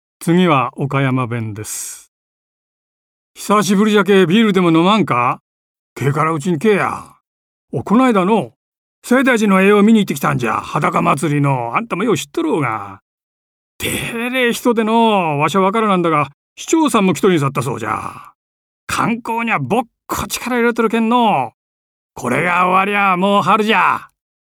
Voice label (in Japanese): 方言